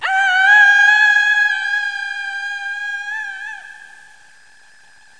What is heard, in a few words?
FEMALE; Renaissance